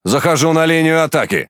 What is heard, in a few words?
Speech Pilots Torpedo Engage, RU; World Of Warships